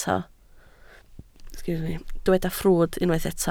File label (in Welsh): "ffrwd", Welsh